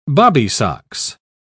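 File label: "bobbysocks", British English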